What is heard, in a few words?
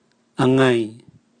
canarium indicum tree, Raga